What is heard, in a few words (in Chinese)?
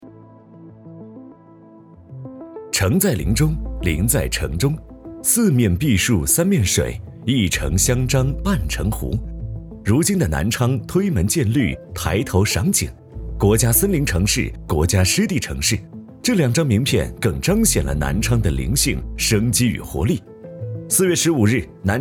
男 宣传 视频制作